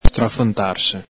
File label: "strafantarse", Veneto